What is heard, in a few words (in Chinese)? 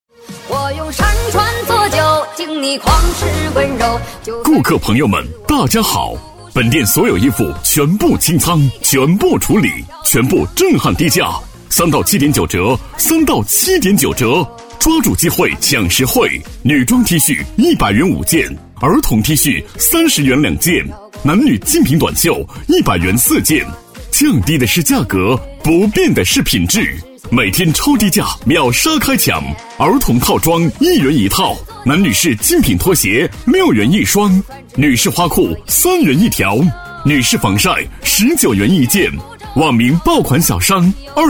男 促销广告 特卖+音乐; 性价比王 激情力度